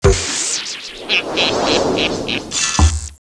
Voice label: witch

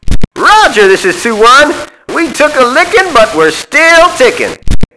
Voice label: HURT, PILOT; APACHE

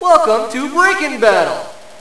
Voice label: Announcer Welcome; BREAKIN BATTLE

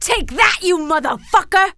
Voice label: take that, female; fighting

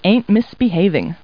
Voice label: people, kid

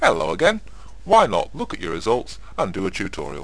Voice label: chat; Know Your Stuff: Kings & Queens

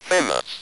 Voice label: "famous", Speak & Spell UK Speech

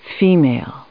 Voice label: female; REDLINE; english

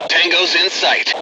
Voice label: detect generic, Voice English

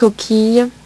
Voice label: "coquille", French; HyperSound™2

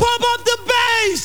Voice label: PUMPUPBS, VOCALS

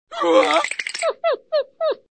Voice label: Scooby Scared; scoobysnackmachine

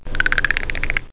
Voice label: chatter; Battle of the Superstars

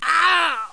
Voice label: Germ Ouch; Close Combat

